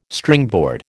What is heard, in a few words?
"Stringboard", American